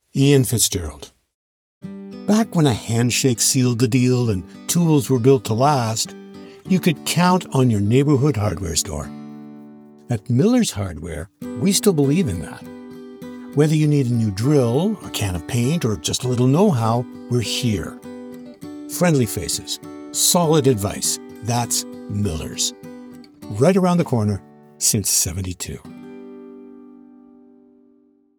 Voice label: Commercial